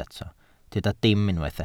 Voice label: "dim", Welsh